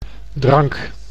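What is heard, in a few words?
"drank", Dutch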